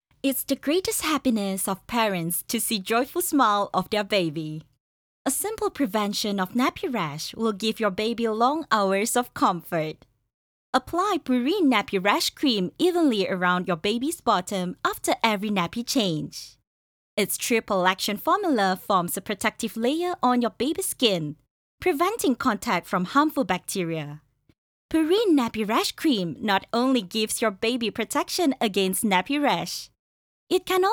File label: VO Eng; Pureen